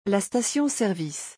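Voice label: "la station service", French; vocabulaire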